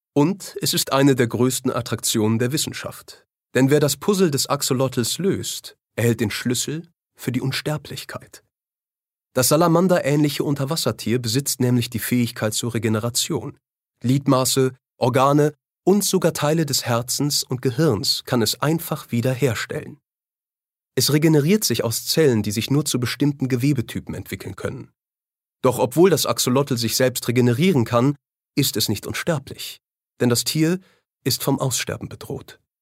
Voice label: Dokumentarischer Text; LOFT VOICES

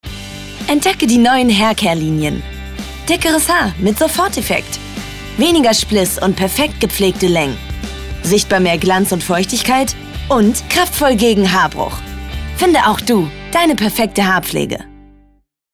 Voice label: Werbung BEAUTY, Commercial (Werbung), German; voice

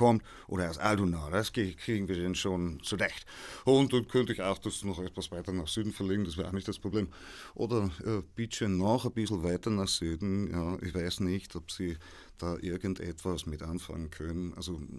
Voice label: dialekt

